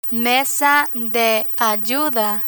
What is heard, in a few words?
"mesa de ayuda" Spanish